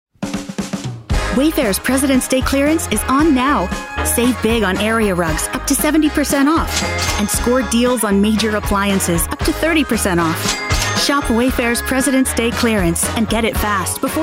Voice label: Commercial Demo